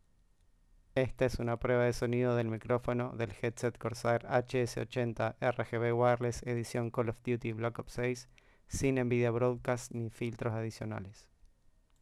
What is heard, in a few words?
HS80 Wireless; HS80 RGB Wireless; Black Ops 6